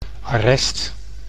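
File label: "arrest", Dutch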